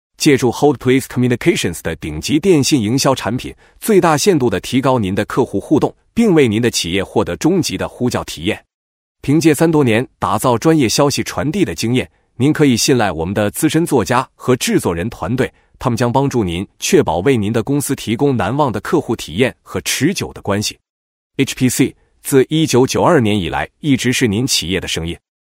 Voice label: Mandarin Male ai; Yunjan